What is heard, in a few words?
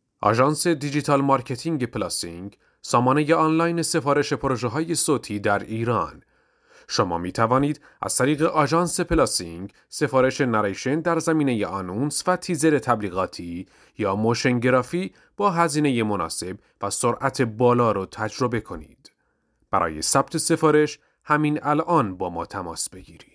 narrator; نریتور و گوینده آقا